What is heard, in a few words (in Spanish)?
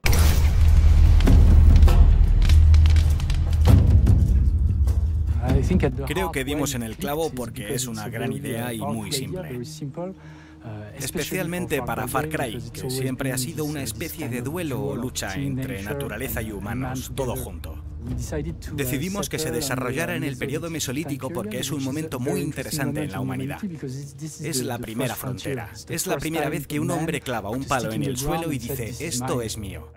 documental